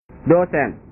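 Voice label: "dosen", Indonesian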